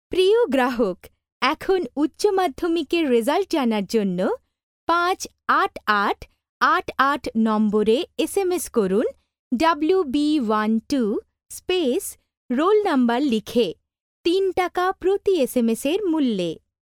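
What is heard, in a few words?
Bengali Bangladesh, female, voice over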